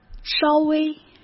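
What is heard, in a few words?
"稍微", Mandarin Chinese; chinese flashcards; hsk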